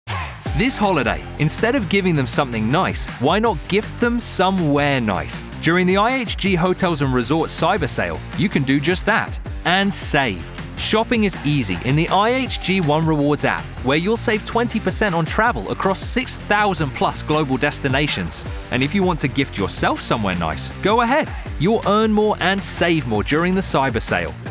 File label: English, Christmas Commercials; United States; Christmas Commercials 2023; IHG